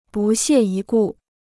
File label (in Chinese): "不屑一顾", Chinese